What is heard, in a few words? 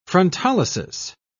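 "frontolysis", British English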